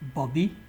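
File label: "bobi", Nigerian Pidgin, male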